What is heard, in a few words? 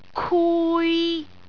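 "kui3", Cantonese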